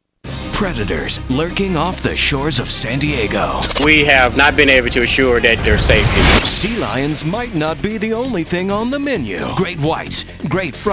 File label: News